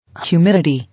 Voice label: "Humidity", English

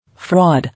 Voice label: "Fraud", English

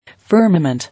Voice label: "Firmament", English

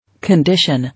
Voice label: "Condition", English

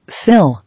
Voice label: "Sill", English

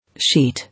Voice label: "Sheet", English

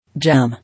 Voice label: "Jam", English

